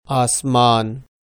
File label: "aasmaan", Pashto